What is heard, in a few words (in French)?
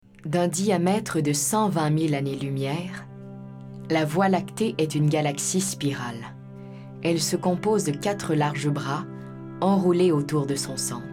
Narration